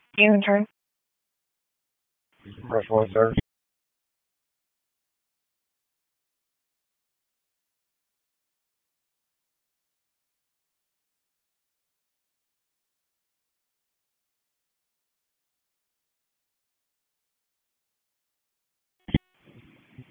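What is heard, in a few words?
Public Safety Tactical, p25; wake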